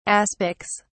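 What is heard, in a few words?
"aspics", English, British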